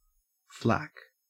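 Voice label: "flack", Australian English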